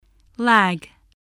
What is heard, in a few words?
"lag", English